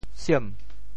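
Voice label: "siem2", Teochew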